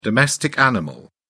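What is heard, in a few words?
"domestic animal", British English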